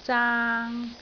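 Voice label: "zaang3", Cantonese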